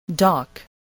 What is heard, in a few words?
"dock", spelling